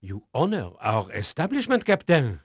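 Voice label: French male, voice; Pirates Of The Caribbean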